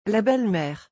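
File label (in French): "La belle mere", French; vocabulaire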